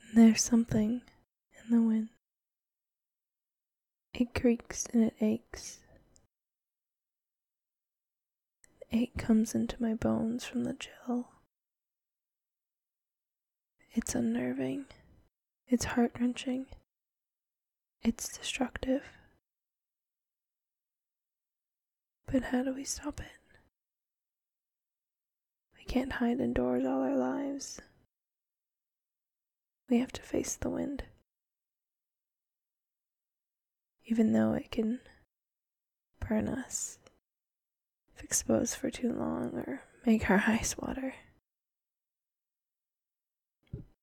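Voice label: asmr, encouragement, short, rambling; F4A; Destructive Winds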